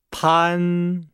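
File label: "pan", Chinese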